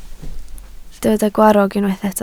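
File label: "gwarrog" Welsh, spoken word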